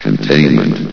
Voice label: "containment", vox; source engine; hl1; video games